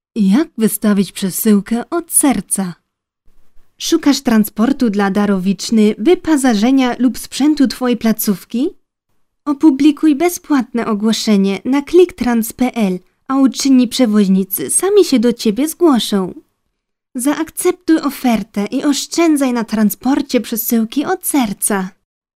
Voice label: Polish female